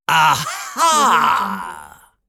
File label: cartoon bad man aha